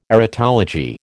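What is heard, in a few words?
"erotology", American English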